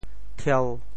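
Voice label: "thieu1", Teochew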